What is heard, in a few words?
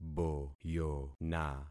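"boyona", concatenated syllables; Affixing experiment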